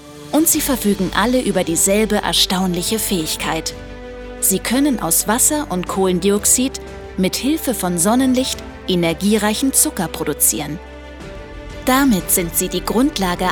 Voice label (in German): Dokumentation Pflanzen Tropen